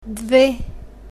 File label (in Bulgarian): "dve", bulgarian